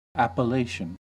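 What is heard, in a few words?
"appellation", US English; pronunciations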